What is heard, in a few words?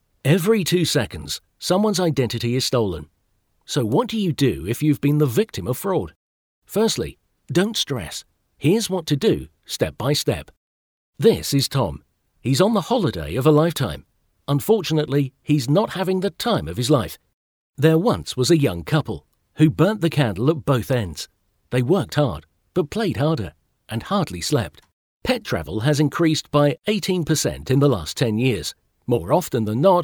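CONVERSATIONAL, voice over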